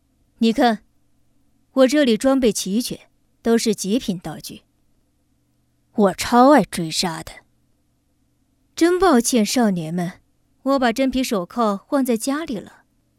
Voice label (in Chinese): 女; 皮城女警